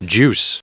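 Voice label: "juice", US English, recite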